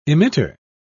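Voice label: "emitter", British English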